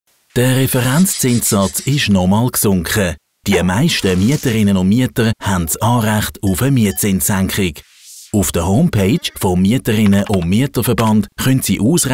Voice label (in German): erklaerfilm; Mieterverband – Erklärfilm